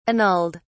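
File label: "annulled", British English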